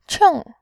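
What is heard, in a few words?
"qing", Mandarin Chinese, fourth tone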